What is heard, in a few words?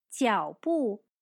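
"脚步", Chinese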